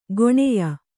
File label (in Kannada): "ಗಣ್ಯ", Kannada